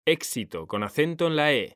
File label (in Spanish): "ÉXITO", H; mekanta